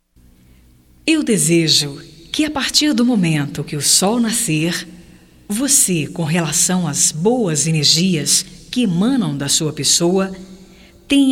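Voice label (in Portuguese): BOM DIA GERAL - female, Telemensagens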